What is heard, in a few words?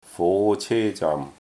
"fo2ce1zaam6", Cantonese